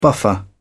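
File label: "buffer", British English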